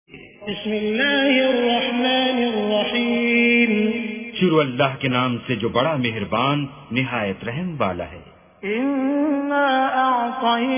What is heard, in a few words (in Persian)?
ترجمه گویای قرآن کریم به زبان اردو; با تلاوت سدیس و شریم; سوره کوثر